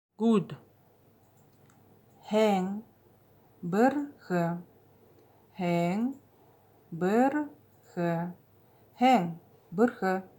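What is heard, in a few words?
"Good", Buryat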